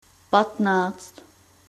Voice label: fifteen, czech

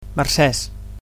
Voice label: "thanks", Catalan

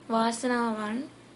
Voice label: cheers, sinhala

